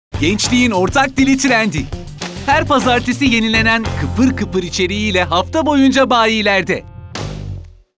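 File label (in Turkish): neseli tempolu, seslendirme; sicak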